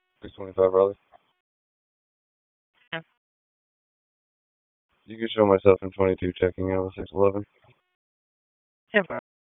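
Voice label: Police District Dispatch, p25; Raleigh Police Southwest District Disp; wake p25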